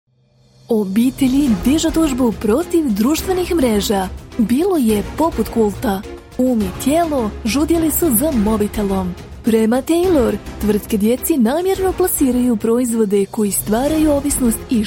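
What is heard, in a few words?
croatian and serbian same text narration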